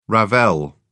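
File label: "ravel", British English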